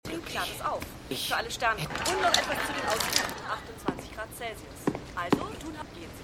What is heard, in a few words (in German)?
Wetteransagerin; Frasier